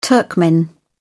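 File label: "turkmen", British English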